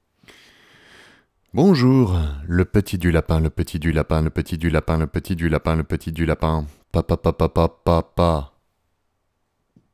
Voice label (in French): Voix coupe bas